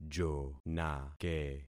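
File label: "jonake", concatenated syllables; Affixing experiment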